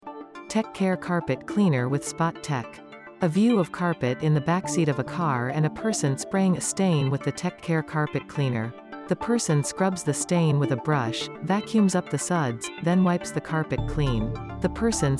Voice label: Carpet Cleaner short promo; TechCare

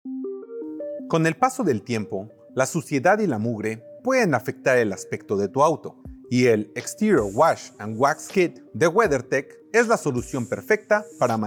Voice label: Spanish; Exterior Wash And Wax Kit; techcare

